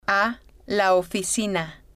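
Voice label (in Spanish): a La Oficina, spanish, female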